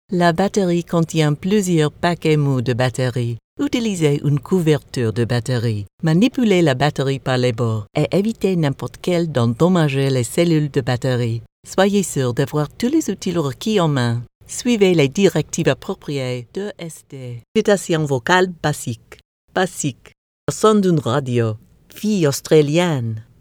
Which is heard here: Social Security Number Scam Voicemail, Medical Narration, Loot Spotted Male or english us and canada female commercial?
english us and canada female commercial